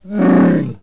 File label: wookiee happy, creatures; Star Wars Obi-Wan; XBOX